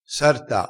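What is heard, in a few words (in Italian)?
"sarta", vocabolario dialettale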